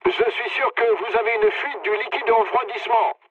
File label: damaged water mood low, speech fr; War Thunder